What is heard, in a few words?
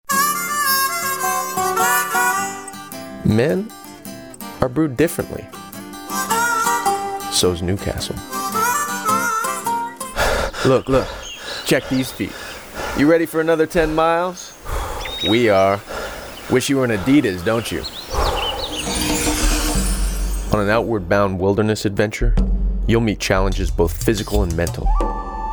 Commercial